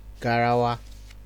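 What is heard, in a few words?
"garawa", Nigerian Pidgin, male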